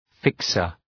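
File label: "fixer", English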